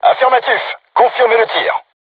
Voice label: voice message art shoot, crew tanks french